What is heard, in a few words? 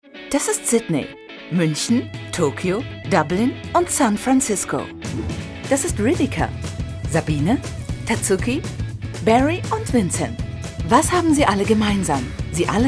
corporate, German, female